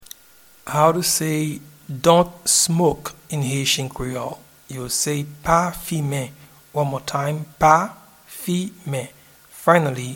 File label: Dont smoke in Haitian Creole Pa fimen